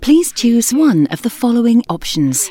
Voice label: UK female please choose one of the following options; lynne publishing